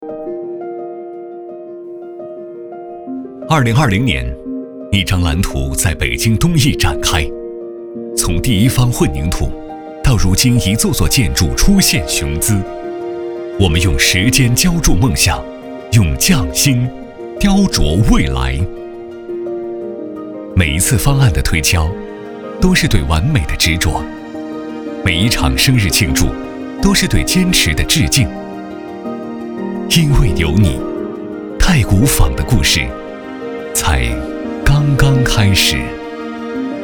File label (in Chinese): 男 广告; 太古坊